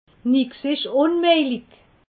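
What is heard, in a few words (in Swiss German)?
"nix", Alsatian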